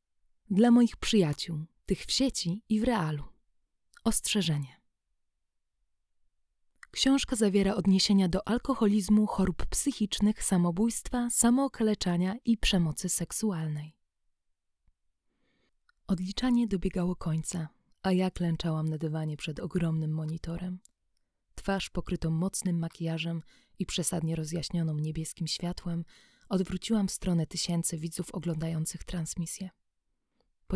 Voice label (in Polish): Prolog Audiobook lektorka